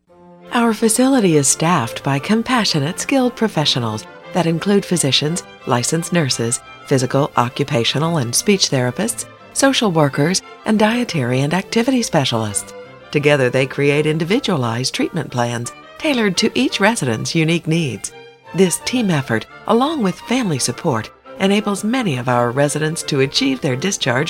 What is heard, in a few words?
rehab care center, femalevoices; audio on hold